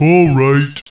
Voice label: acknowledge, ogre; orcs; Alright.; war2; horde sounds